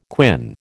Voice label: "quin", American